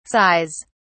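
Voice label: "sighs", British English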